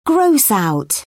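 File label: "gross out", British English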